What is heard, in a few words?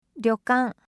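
"ryokan", Japanese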